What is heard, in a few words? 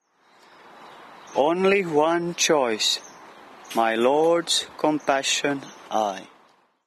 poem